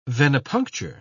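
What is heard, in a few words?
"venipuncture", British English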